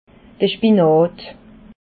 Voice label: "spinat", alsace

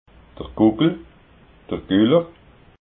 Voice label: "gukel", alsace

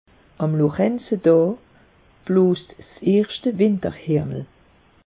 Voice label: "loranze daa", alsace; meteo

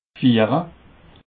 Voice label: "fiehre", alsace

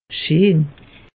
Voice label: "scheen", alsace; meteo

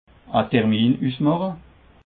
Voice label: "termin", Alsace

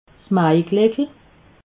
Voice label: "maigleckel", alsace

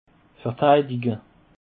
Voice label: "verteidige", Alsace

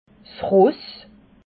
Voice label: "ross", alsace

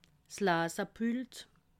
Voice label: "lase pult", alsace

